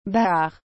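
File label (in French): "bar", French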